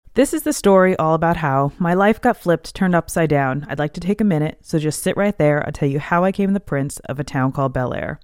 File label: SM7B USBPre, microphones